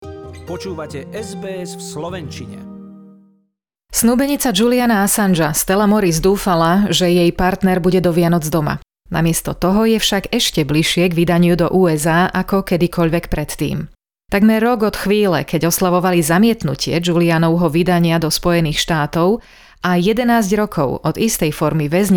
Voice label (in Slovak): slovak; sbs